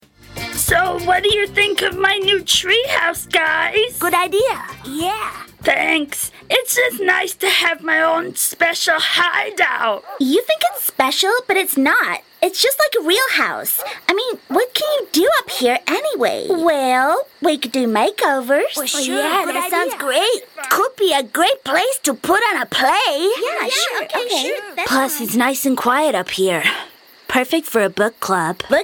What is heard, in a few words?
US Animation